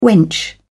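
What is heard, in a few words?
"wench", British English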